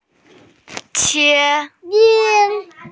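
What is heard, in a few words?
"qie1", Mandarin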